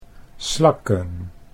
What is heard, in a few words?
"slakken", Dutch